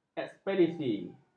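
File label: "ekspedisi", Malay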